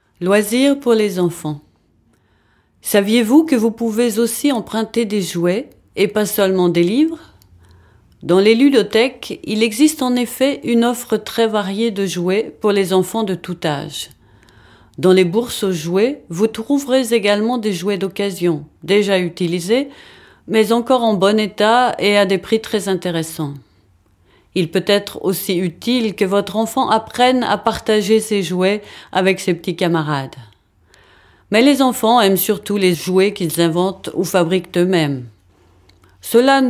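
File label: French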